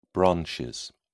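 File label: "branches", British English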